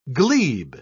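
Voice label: "glebe", British English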